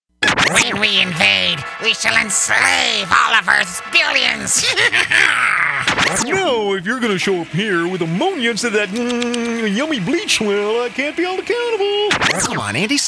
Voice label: Characters, Male voices

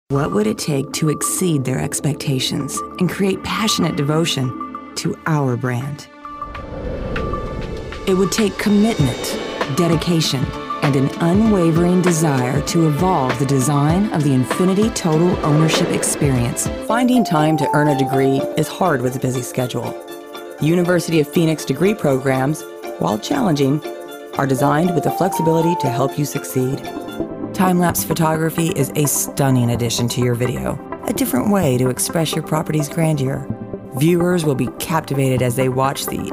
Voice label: Narration, Female voices

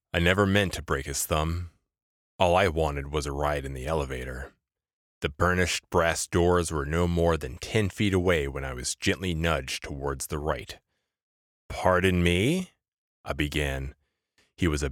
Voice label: Audiobook Excerpt, Male voices

